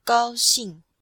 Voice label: "gao1xing4", chinois; Cours-de-Chinois

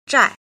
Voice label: "jin", Chinese

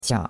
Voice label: "qia3", chinois; Cours de Chinois

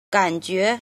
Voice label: "jin", Chinese

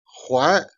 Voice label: "jian", Chinese

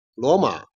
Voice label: "jian", Chinese

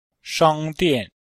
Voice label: "shang1dian4", Chinese

